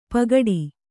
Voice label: "ಪಗಡಿ", Kannada